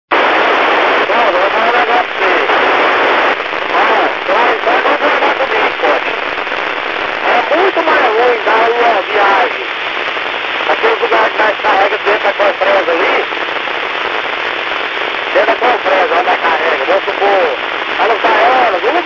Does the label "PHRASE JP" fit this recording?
No